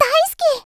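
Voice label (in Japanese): "だいすき", Japanese; Voice&Breath; Vocaloid 6.5.1; Otomachi; VOCALOID6 AI OtomachiUna Spicy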